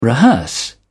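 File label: "rehearse", British English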